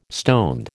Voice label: "stoned", American

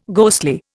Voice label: "ghostly", Indian

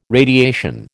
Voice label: "radiation", American

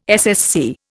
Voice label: "SSC", Indian

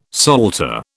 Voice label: "salter", British

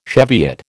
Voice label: "Cheviot", American

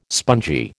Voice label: "spongy", American

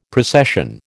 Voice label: "precession", American

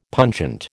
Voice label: "pungent", American

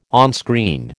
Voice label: "onscreen", American

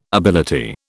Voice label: "ability", British

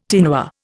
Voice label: "tinware", Indian

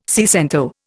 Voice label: "seicento", Indian

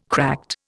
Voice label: "cracked", Indian

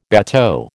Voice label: "bateau", American

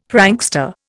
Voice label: "prankster", Indian; Dictionary

